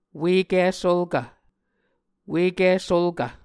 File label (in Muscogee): "Uiki Sulkv", Muscogee